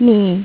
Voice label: female mandarin "star"; MediaTek; HumanVoice